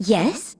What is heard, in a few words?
Female; baldurs1